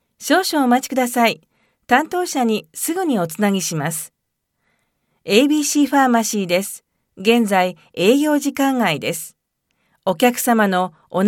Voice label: Japanese IVR, Female

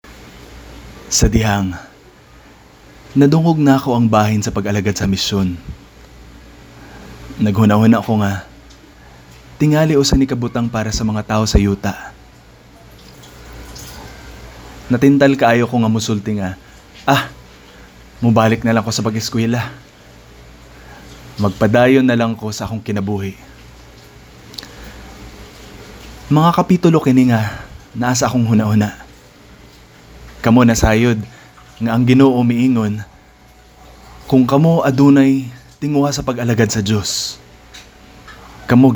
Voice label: Manila Cebuano Voice Sample Male

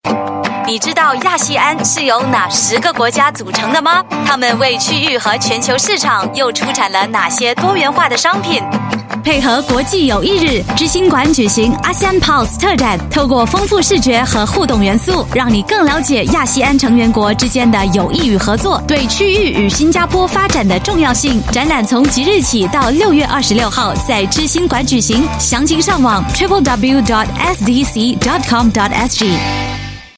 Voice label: Mandarin Female; China; International Friendship Day

